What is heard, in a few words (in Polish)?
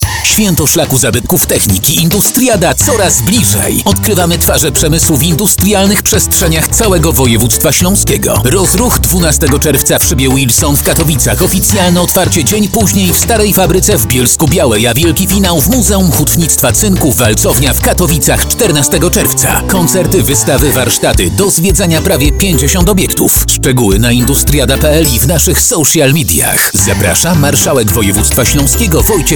spot radiowy; industriada